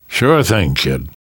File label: you are welcome, namvet, survivor voice; Left 4 Dead 2; left4dead2 dlc2